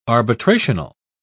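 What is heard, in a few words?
"arbitrational", British English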